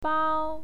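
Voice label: "bao", Mandarin Chinese, first tone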